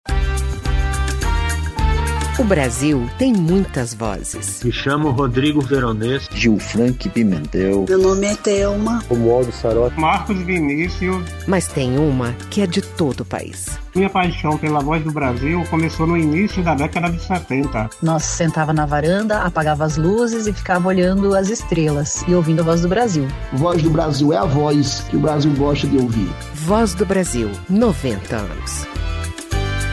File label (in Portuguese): spot voz 90 personagens; A Voz do Brasil 90 anos